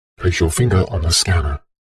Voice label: science fiction computer console voice robotic male says place your finger on the; zapsplat